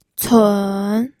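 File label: "cun", pinyin, third tone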